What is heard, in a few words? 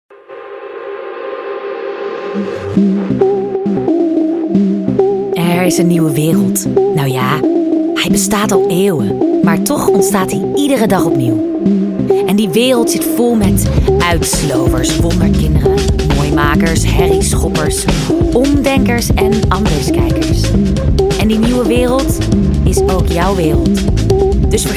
NL